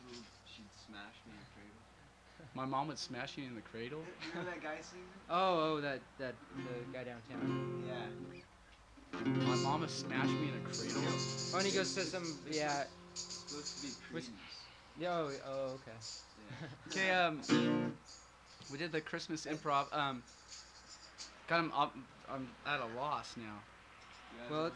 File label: Banter; Background Actors